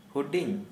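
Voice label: Santali male little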